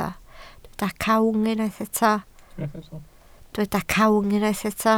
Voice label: "cawg", Welsh

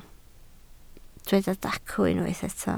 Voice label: "dacw", Welsh

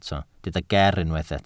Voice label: "ger" Welsh

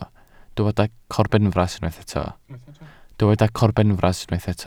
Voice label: "corbenfras" Welsh

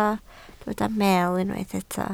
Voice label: "meel", Welsh

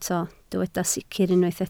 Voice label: "sicr", Welsh

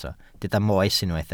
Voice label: "moes" Welsh

